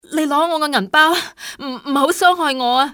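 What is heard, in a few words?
wallet, civ hk female; XBOX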